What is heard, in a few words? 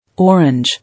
"Orange", English